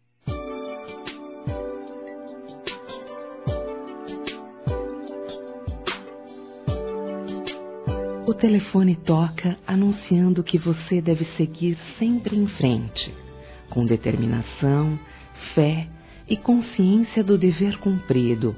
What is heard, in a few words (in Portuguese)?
AO MÉDICO F; fem